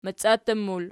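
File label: "maciattur", plural, Archi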